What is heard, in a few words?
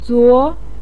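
"zuo2", Mandarin pinyin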